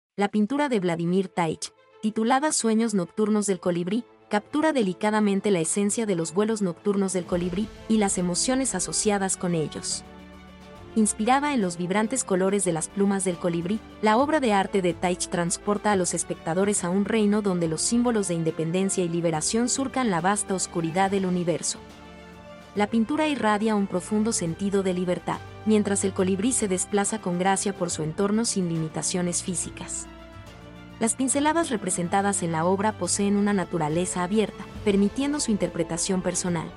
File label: humming night dreams description spanish